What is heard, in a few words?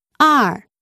Chinese Number 2